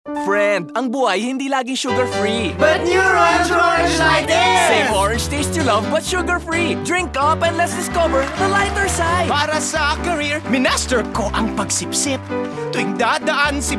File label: Tagalog (Performer)